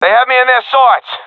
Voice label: takingfire, professional epic, agents; vo; Source Model Packs; Counter Strike Model Pack; CS2